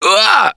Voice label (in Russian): defibrillator, left4dead2 russian, Survivor Voice